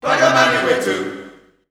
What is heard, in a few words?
male & female phrase, Voices Of Africa, phrases & chants